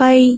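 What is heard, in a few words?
"bai1", Cantonese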